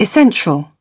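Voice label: "Essential", British English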